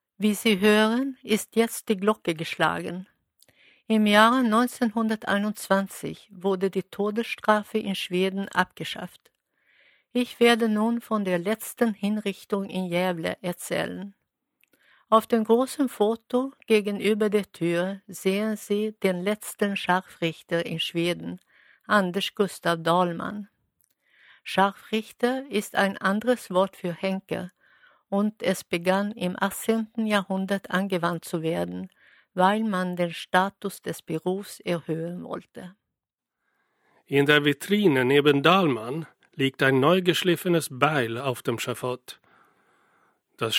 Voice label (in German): cell, audioguider